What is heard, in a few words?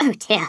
"OH DEAR", Stiff Upper Lip